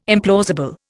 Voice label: "implausible", Indian